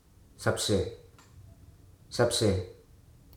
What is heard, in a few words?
"inconvenience", Hruso